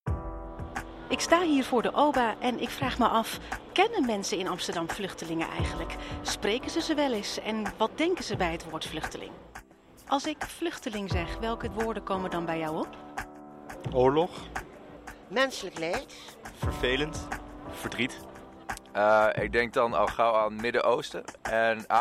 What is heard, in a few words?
Voxpop